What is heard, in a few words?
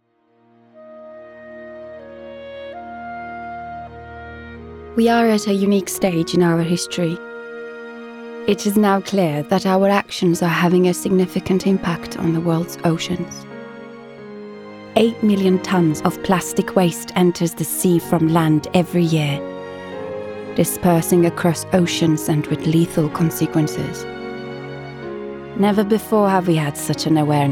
Documentary; Plastic Doc